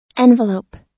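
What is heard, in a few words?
"envelope", American English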